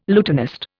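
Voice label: "lutenist", Indian English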